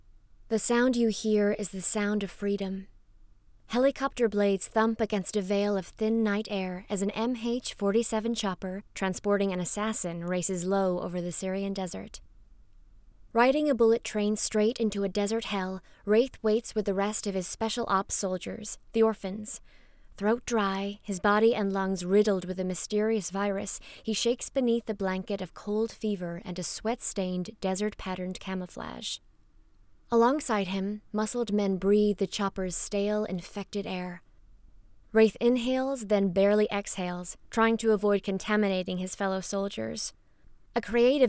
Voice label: US Audiobook; Warm, versatile and engaging.; Belfast/London based actress